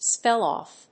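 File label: "spell-off", English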